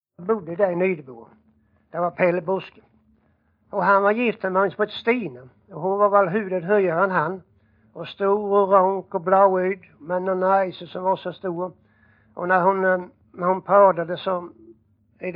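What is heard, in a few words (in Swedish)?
Jämshög Blekinge, dialekter; Folkminnen; Svenska sagor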